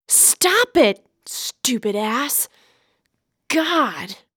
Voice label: Waitress Anger; zps